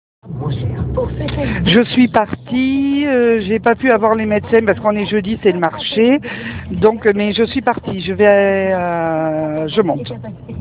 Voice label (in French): Message vocal